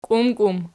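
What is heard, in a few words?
"kiumkium" nominative, Archi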